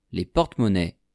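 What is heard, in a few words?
"les porte monnaies", French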